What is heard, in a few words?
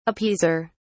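"appeaser", British English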